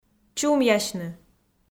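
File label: "чумъясьны", Komi, female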